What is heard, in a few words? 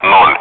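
Speech, Russian, air traffic control; Digits; DCS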